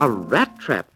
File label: "rat trap", Voice